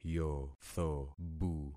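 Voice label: "yothobu", concatenated syllables; Affixing experiment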